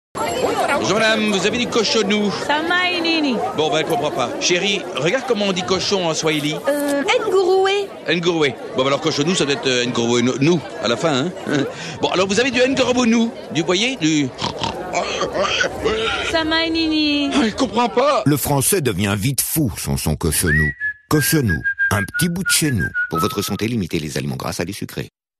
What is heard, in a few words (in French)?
radio pub spot